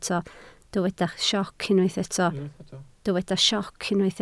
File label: "sioc", Welsh